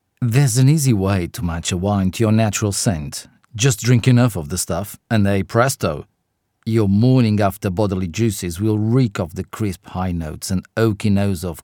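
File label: Portuguese English accented, male voice over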